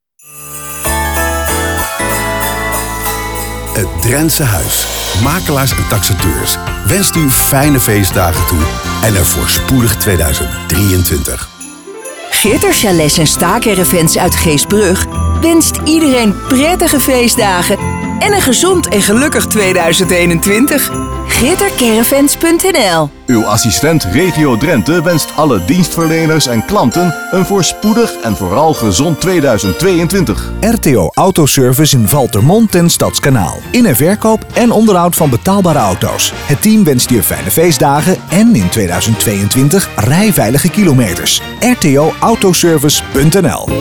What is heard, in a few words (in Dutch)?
Kerstcommercials Radio; Radio Drenthe; 2023